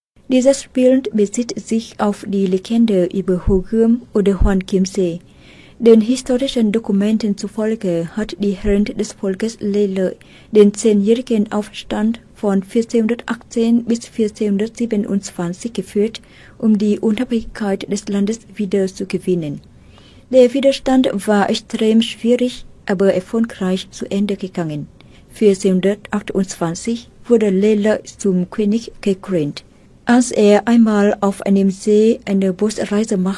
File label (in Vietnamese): Tieng Duc; thu am da ngon ngu